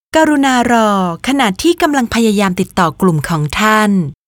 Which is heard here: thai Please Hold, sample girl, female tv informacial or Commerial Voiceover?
thai Please Hold